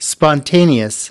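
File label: "spontaneous" US English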